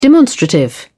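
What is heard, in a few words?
"demonstrative", British English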